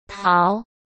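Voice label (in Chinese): "淘", Mandarin Chinese; chinese-flashcards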